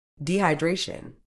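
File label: "dehydration", British English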